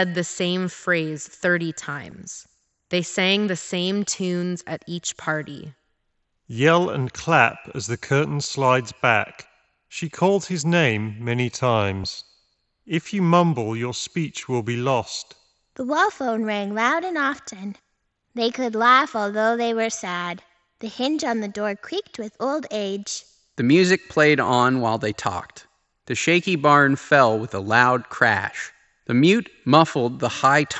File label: Wireless Reverb Ideal microphone sample; Steelseries Arctis Nove 5x